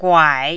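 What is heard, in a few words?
"guai", Mandarin, tone 3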